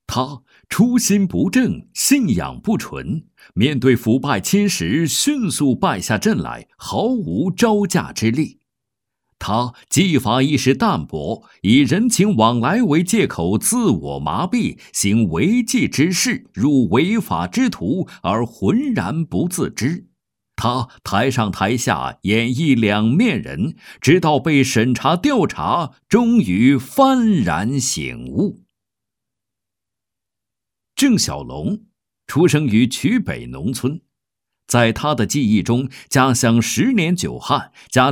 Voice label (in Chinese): 男 警示; 反腐样音; 缺失的敬畏